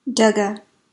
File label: "please", ojibwe